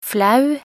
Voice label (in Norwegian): "flau", nb NO